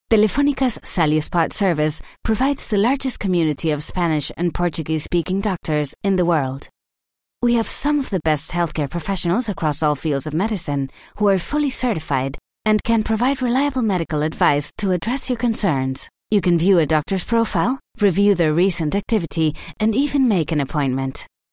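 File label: Spanish English, female, corporate; accent of spain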